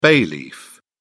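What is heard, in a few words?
"bay leaf", English, British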